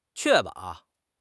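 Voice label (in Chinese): "确保", Chinese; HSK6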